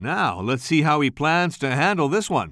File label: commentator